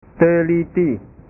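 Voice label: "teliti", Indonesian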